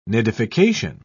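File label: "nidification", British English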